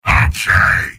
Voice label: soldier m positive vocalization; tf2; garrysmod; mvm

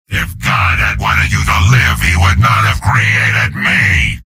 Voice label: soldier taunts; tf2; garrysmod; mvm

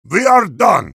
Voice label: heavy taunt end; vo; taunts; tf2

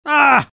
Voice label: spy negative vocalization; tf2

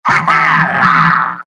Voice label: pyro highfive success, taunts; mvm

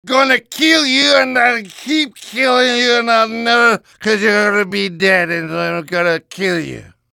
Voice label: gibberish; demoman

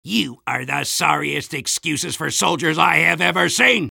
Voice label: soldier jeers; tf2

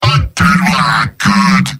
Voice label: jeers; demoman; mvm

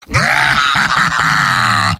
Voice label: heavy cheers; mvm

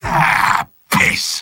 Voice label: sniper negative vocalization; tf2; mvm

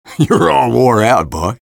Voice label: eng taunt rps win; vo; taunts; tf2; engy

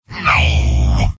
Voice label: negative vocalization, soldier; tf2; garrysmod; mvm

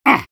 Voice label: soldier taunt pain

